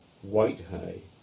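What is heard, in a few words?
"Whitehay", English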